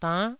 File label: "dann9", Taiwanese syllable